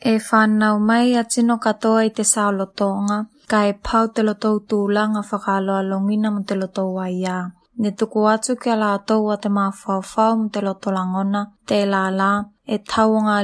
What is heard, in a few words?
udhr tuvaluan